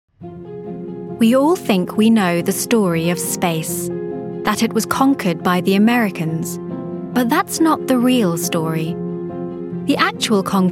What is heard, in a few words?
documentary